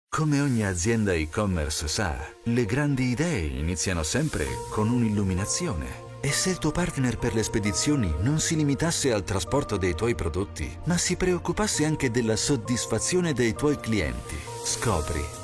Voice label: COMMERCIAL, Italian, male